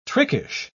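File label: "trickish", British English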